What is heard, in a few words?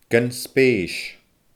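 "gun speeis", Scottish Gaelic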